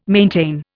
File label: "maintain", Indian